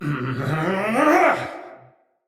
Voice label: Attack Heavy, Battle, Barks, Dialog; Mendicant Wazard